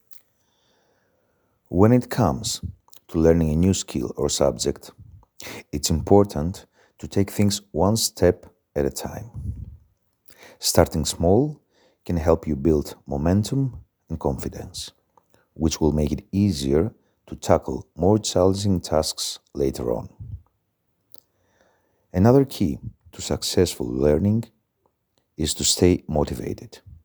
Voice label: English Language